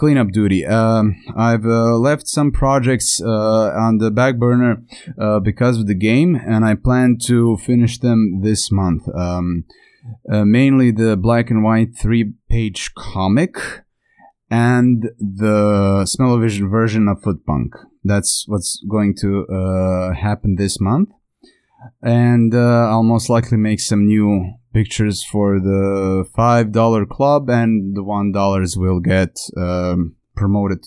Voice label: announcement